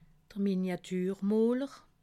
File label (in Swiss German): "miniatur moler", alsace